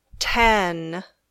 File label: "ten", English